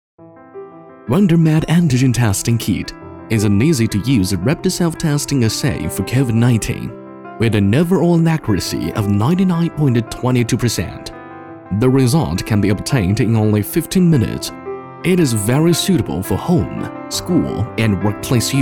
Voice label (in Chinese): 英男 使用说明介绍